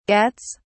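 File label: "gets", British English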